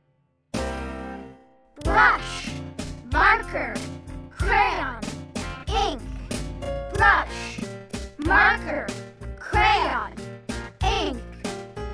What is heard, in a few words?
the picture chant slow